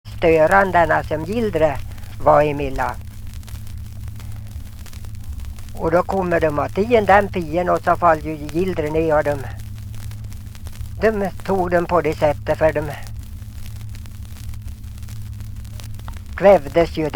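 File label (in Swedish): Ljustorp Medelpad, dialekter; Folkminnen; Svenska sagor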